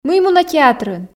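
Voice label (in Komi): мый мунӧ театрын, Komi, female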